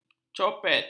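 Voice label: "copet", Malay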